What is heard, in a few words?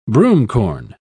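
"broomcorn", British English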